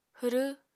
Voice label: "hwrw", Apatani